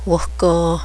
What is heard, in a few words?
rabbit, cheyenne language